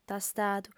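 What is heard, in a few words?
"tastadu", female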